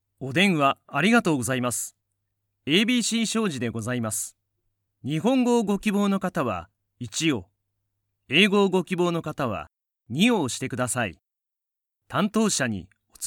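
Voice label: Japanese, IVR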